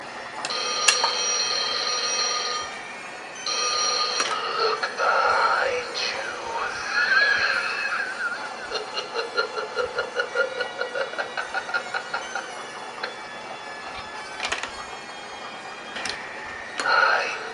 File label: Phone Sayings Sample